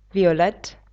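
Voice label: "violett", German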